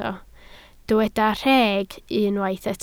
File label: "rheg", Welsh